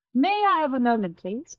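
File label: Voice Acting es, female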